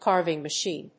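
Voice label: "carving machine", English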